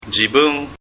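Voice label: "jibun", Japanese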